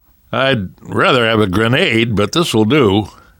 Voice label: take pipe bomb, namvet, survivor voice; Left 4 Dead 2; left4dead2 dlc3